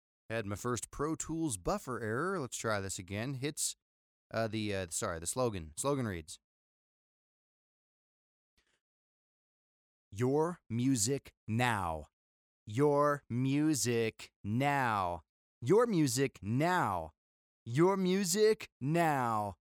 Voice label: Pickup, simple clean voiceover in a bedroom